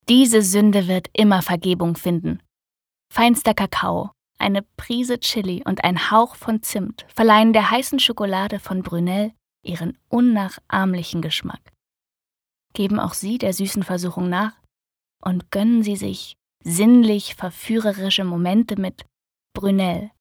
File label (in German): Sanft